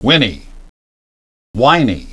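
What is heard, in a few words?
"whinny" "whiny", English pronunciation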